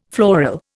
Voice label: "floral", Indian

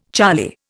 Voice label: "charlie", Indian

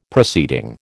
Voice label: "proceeding", American

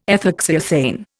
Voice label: "ethoxyethane", Indian

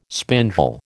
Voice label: "spindle", American

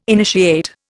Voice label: "initiate", Indian

